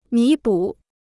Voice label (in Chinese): "弥补", Chinese